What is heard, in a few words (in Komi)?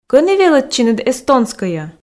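кӧні велӧдчинныд эстонскӧйӧ, Komi, female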